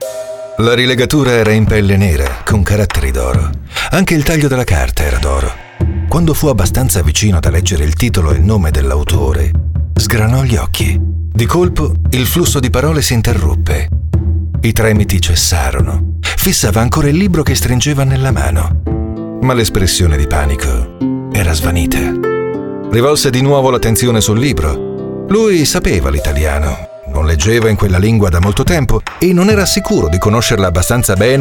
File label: audiobook